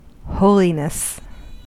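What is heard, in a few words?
"holiness", US English; pronunciations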